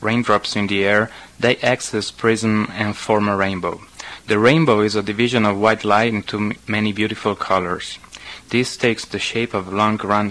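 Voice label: italy, International Dialects of English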